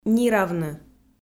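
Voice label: "ниравны", Komi, female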